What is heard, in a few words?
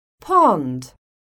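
"POND", English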